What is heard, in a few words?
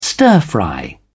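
"stir-fry", English, British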